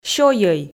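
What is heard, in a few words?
"сёйӧй", Komi, female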